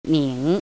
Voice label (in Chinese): "ning3", 汉字语音; chinese-voice